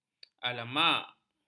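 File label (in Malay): "alamak", Malay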